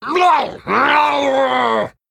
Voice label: Male Murloc; Mutanus; Guff; Emote Response